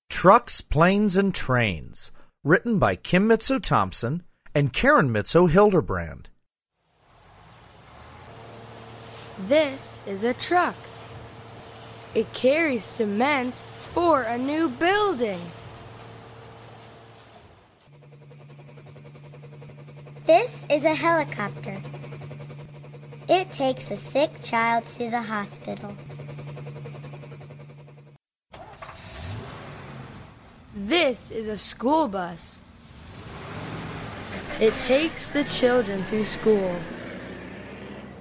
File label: English Narration; Trucks, Planes, and Trains; Twin Sisters